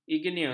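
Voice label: "igneus", Malay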